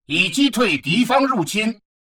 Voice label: advisor notification enemy invasion defeated, chinese; Stellaris